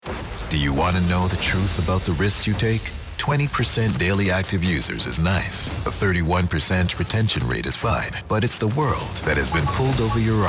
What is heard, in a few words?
Commercial, soothing voice; Voice Over Artist; creates a connection with a soothing voice that feels like a velvet embrace, drawing you in and captivating you